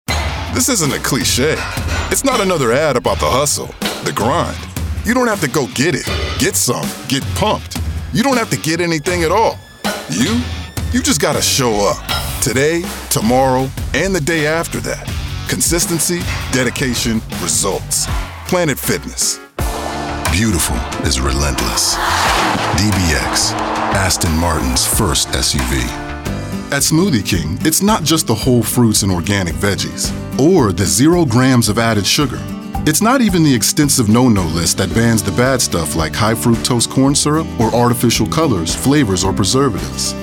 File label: Commercial